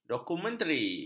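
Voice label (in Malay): "dokumentari", Malay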